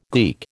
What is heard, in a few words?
"geek", American